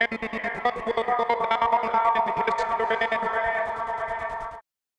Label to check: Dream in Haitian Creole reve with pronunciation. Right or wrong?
Wrong